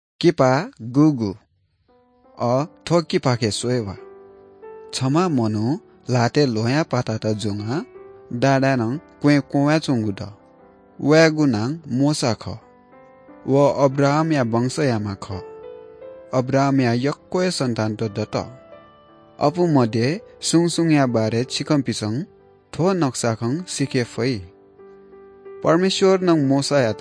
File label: good news newari bhaktapur